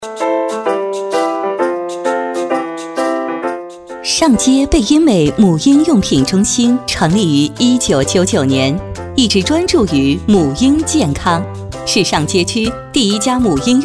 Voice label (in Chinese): 品质广告 母婴 女 亲切温柔; 贝婴美母婴-女4